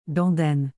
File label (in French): "dondaine", Canadian French; Québec; (cute filler word)